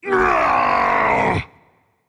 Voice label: ouch rage; chaac